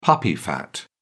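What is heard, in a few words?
"puppy fat", British English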